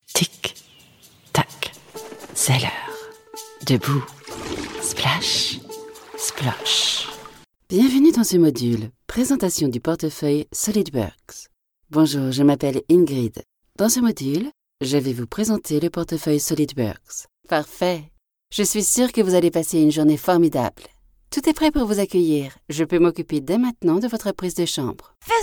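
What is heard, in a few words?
French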